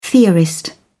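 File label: "theorist", British English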